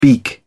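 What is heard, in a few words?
"beak", English, British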